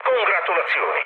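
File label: Italian player killed enemy mood low, speech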